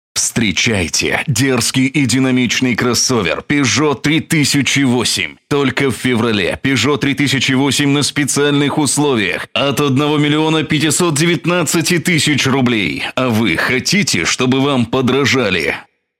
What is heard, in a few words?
fast